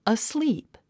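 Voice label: "asleep", English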